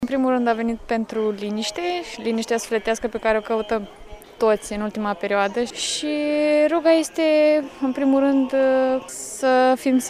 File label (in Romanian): Vox pop Hram Parascheva